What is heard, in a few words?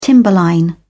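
"timberline", British English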